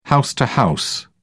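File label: "house-to-house", British English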